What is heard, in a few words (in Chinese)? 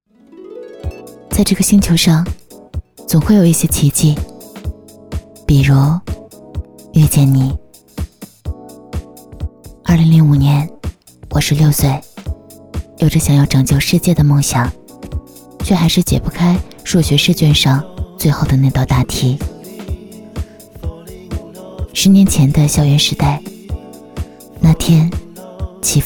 女 独白 爱情最美的样子